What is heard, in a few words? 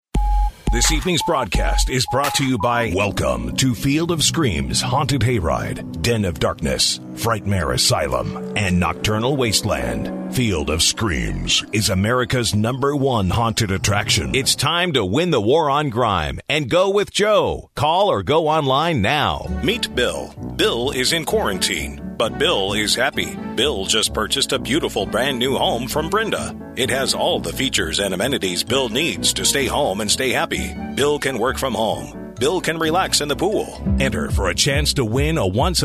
Announcerish